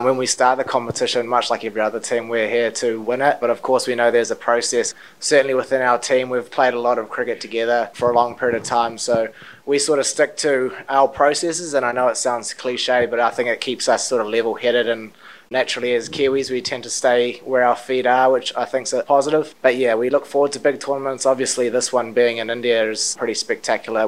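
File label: English; Lockie Ferguson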